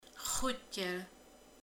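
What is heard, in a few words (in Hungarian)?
"ugrik", Hungarian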